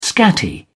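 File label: "scatty", British English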